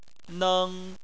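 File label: "neng", Chinese, first tone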